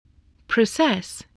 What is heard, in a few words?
"process", voice, spelling